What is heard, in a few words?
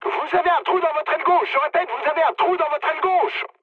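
left wing, mood medium, French; War Thunder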